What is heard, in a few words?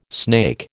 "snake", American